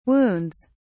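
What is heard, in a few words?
"wounds", British English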